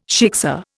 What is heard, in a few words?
"shiksa", Indian